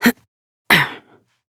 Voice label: Horse Dismount Adult; Duviri